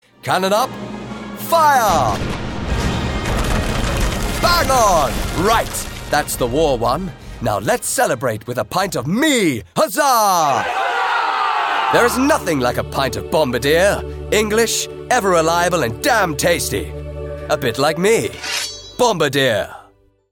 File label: advert; bombardier